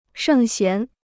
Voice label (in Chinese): "圣贤", Chinese